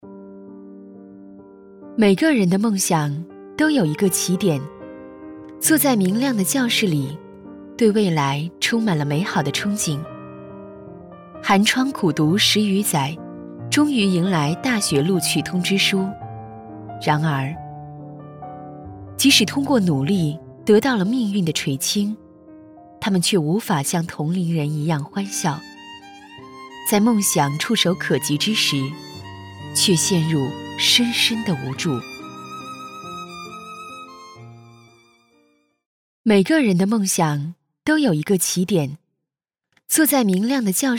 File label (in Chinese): 女国 专题 学校 梦想 稳重